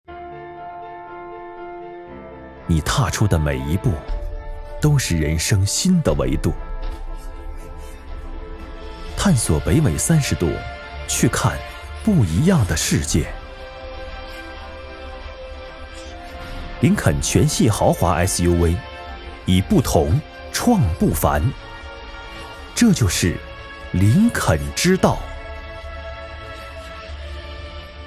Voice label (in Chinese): 汽车广告; 林肯